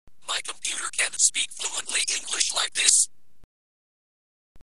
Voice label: whisper, synthesizer